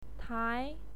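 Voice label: "tai", Mandarin Chinese, second tone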